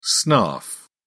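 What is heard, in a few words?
"snarf", British English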